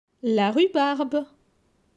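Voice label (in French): "La rhubarbe", French; vocabulaire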